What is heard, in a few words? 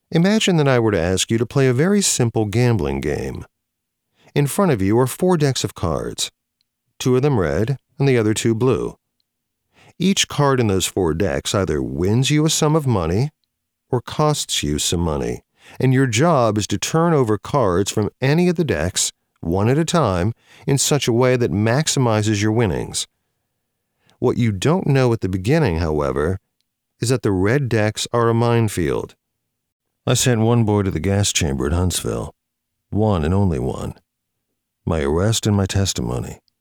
Audio Book